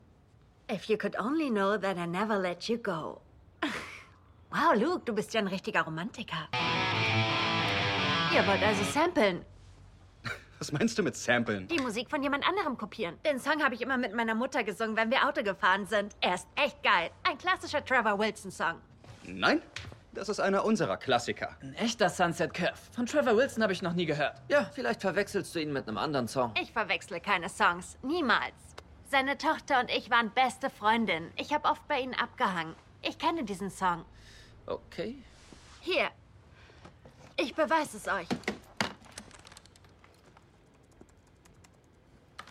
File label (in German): Synchron